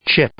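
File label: "chip", English; noun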